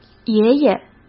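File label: "爷爷", Mandarin Chinese; chinese flashcards; hsk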